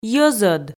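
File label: "йӧзӧд", Komi, female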